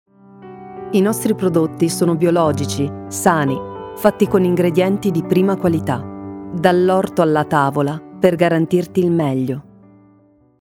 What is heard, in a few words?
italian tv shows